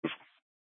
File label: Police South Dispatch; Scttsdle